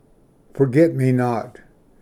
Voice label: "ᏗᎵᏍᏆᎶᏍᏙᏗ", Pronunciation; forget-me-not

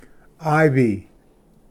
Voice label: "ᎤᎸᏗ", Pronunciation; ivy

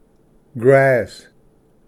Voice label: "ᎦᏄᎸ", Pronunciation; grass

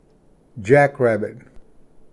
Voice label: "ᏥᏍᏚᎴᏂ", Pronunciation; jackrabbit

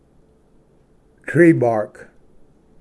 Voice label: "ᎤᏯᎷᎦ", Pronunciation; tree-bark

